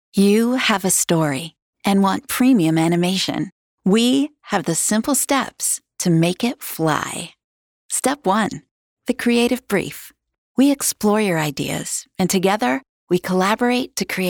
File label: Narration; TaDa